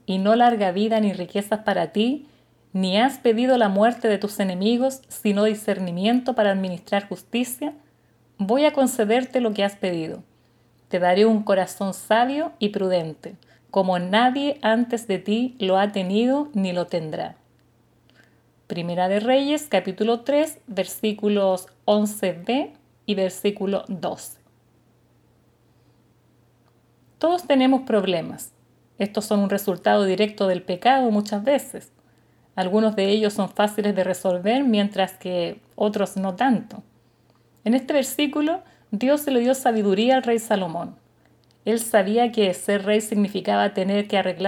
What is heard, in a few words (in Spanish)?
devocional